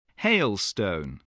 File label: "hailstone", spelling